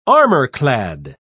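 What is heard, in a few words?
"armor-clad", British English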